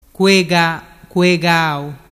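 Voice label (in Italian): "cuegacuegau", dialect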